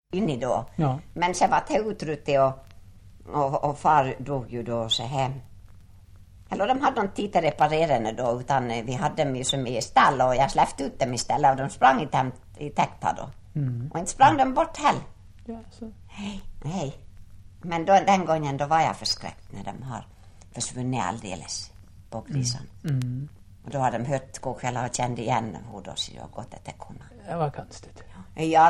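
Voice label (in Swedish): Ål Dalarna, Svenska sagor, dialekter; Folkminnen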